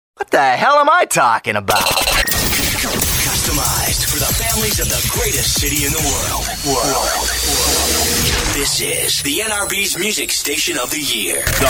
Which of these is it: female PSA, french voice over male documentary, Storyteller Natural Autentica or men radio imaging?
men radio imaging